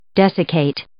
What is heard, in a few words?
"desiccate", English